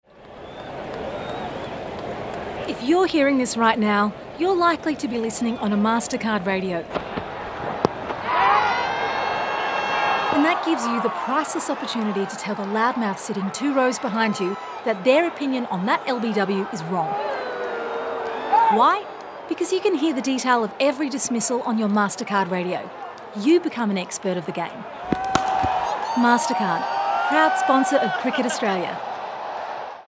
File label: voice over; Mastercard